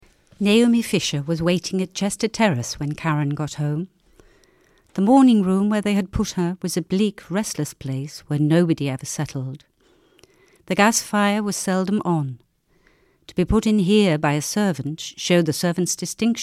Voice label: SHORT DIALOGUE